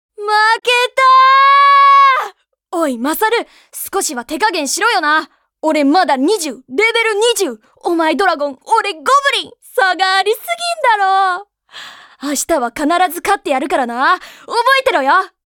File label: boy